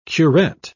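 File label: "curet", British English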